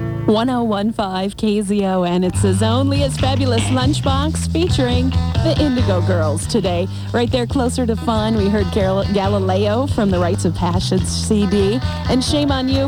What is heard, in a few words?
announcer; kzon - phoenix, arizona